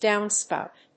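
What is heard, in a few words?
"downspout", English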